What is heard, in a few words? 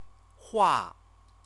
"hua", Chinese; CTCFL; University of Oxford